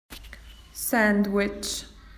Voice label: sandwich, spoken AU; PictureDictionary

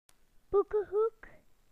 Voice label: "book", BE; book corner; PictureDictionary

